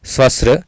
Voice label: "svasr", Sanskrit

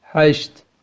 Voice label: "hasht", Kurmanji

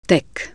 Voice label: Croatian only

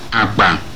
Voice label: Igbo "bag"

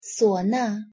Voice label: "suona" Chinese

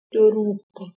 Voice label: "doroog", Persian

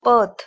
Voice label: "path", Marathi

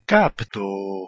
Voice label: "kapto" Ancient Greek

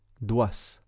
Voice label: "douas" Occitan

